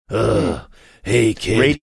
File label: saying uhh hey kid gruff